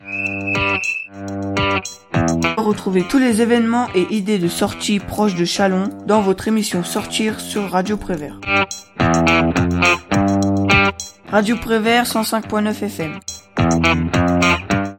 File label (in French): jingle radio prevert sortir